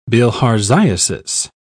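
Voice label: "bilharziasis", English, uk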